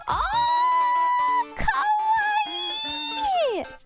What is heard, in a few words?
"AAAAH KAWAII", IRyS; IRyS JP